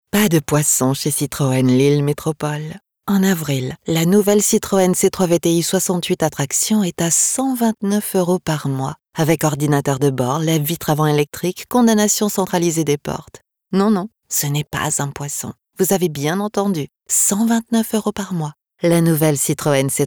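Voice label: French commercial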